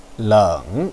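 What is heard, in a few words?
"leng", Mandarin Chinese, third tone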